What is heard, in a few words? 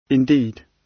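"indeed" British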